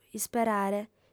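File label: "isperare", female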